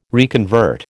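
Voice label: "reconvert", American English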